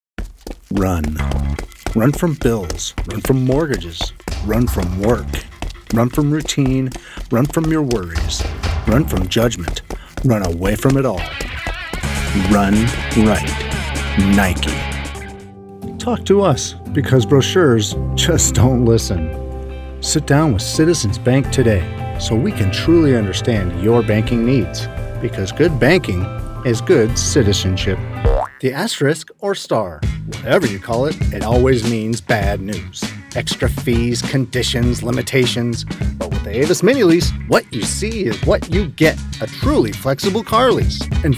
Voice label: Commercial

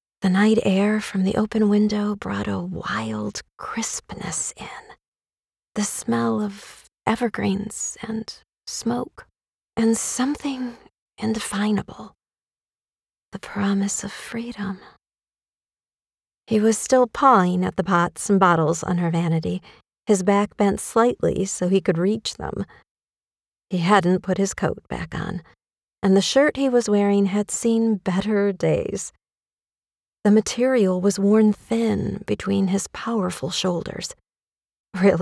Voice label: 3rd person Narration

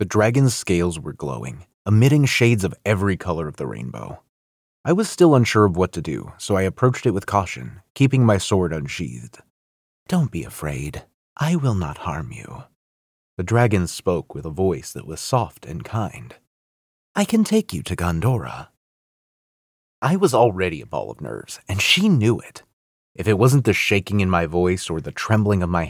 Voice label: Audiobook Narration

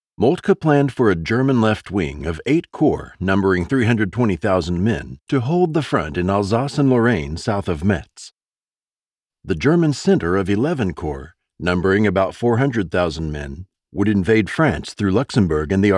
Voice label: Audiobook Nonfiction Military History

